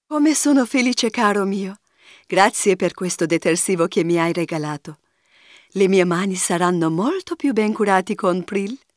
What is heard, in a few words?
ITALIAN WOMEN